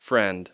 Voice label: "friend", English

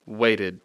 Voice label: "waited", English; Speech Pro

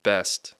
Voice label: "best", English

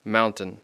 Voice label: "mountain", English; Speech Pro

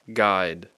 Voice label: "guide", English

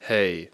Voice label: "hey", English; Speech Pro

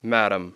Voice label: "madam", English

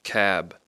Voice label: "cab", English

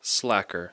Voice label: "slacker", English, C hard; Speech Pro

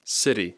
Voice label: "city", English, C soft

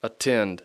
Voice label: "attend", English

